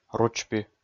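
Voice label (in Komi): "ручпи", Komi, male; kpv audio dict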